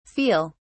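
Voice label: "feal", British English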